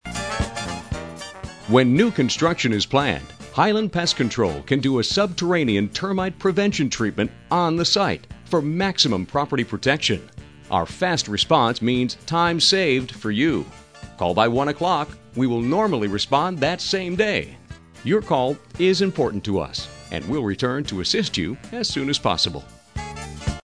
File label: Pest Control On Hold; Highland Pest Control